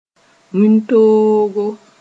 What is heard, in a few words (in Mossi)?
"wĩntoogo", moore